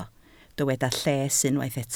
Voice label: "lles", Welsh

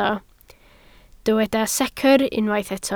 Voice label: "secr", Welsh

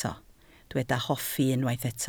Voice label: "hoffi", Welsh

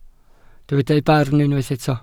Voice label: "barn", welsh

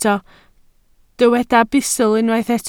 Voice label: "bustl", Welsh

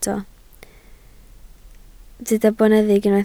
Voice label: "boneddig", Welsh

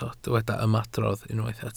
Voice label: "ymadrodd", Welsh

